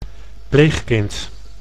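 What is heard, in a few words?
"pleegkind", Dutch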